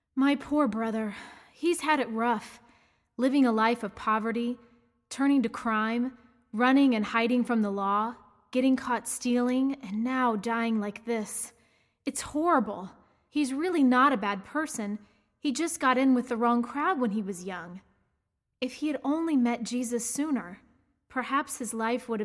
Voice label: Monologue; Thiefs sibling; living last words